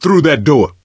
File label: misc directional, coach, survivor voice